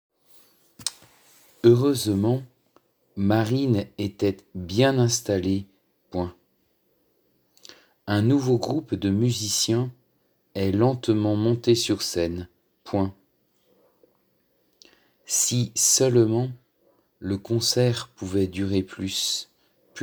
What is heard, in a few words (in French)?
Dictée flash